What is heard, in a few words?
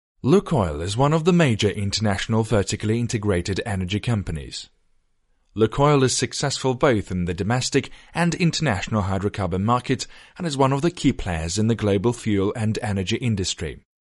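English, male, corporate, accent of russia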